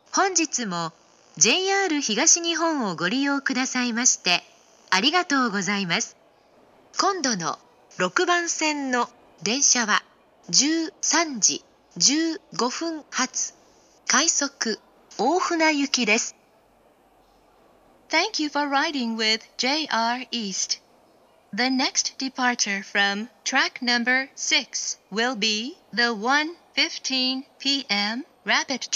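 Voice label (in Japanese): 到着予告放送, 東京駅; ６番線到着予告放送; 京浜東北線; 品川・蒲田・横浜・桜木町・磯子・大船方面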